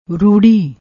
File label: "roodi", Punjabi